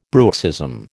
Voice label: "bruxism", American